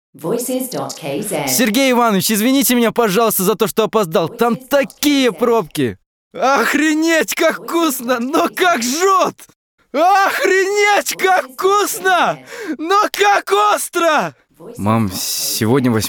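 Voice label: Russian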